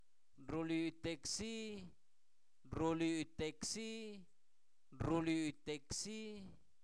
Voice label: Zapoteco Albarradas, santo domingo albarradas; adverbios; parece; INALI

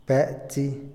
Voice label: tener, Otomí, hombre; Posesión; INALI

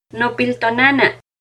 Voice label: nuestra madrecita-nopiltonanan, Náhuatl; NAHUATLAHTOLLI

